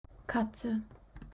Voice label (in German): "Katze", German